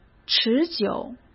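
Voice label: "持久", Mandarin Chinese; chinese flashcards; hsk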